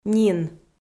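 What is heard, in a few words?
"нин", Komi-Zyrian, female; kpv audio dict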